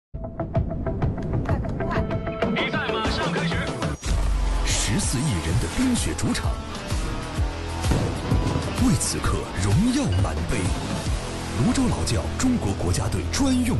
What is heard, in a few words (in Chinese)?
男, 广告; CCTV广告; 泸州老窖